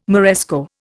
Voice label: "Moresco", Indian